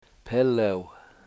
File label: "pelew", washo; jack rabbit